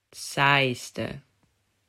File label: "Saaiste", Dutch